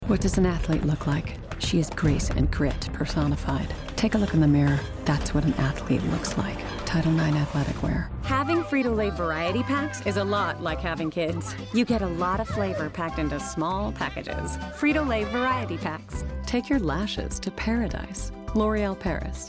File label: Commercial Short